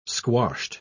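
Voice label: "squashed", British English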